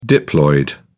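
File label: "diploid", British English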